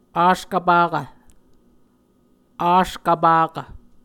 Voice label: "askvpakv", muscogee; Mvskoke Words with Voicing Consonants; A Dictionary of Creek/Muskogee; SIL International; Onvkv Ohhvyayices